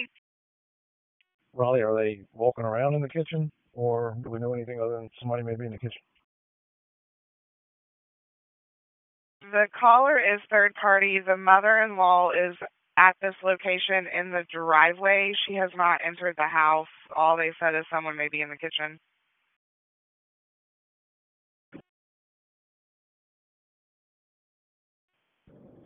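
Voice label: Police Northeast District Dispatch; Raleigh; p25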